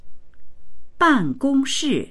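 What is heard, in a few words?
"ban gong shi", Mandarin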